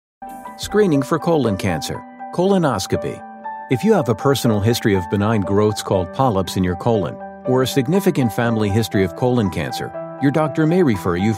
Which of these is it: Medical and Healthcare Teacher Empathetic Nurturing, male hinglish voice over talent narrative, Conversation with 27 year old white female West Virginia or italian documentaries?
Medical and Healthcare Teacher Empathetic Nurturing